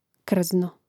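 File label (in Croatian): "krzno", Croatian; Mrežnik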